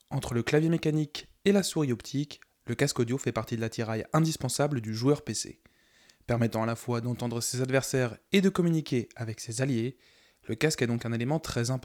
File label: logitech g yeti studio presence boost